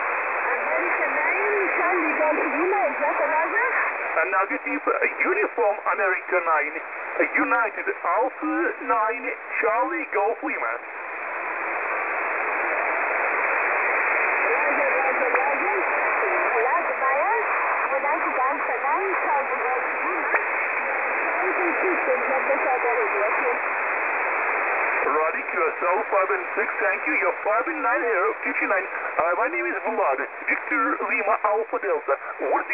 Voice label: QSO 21230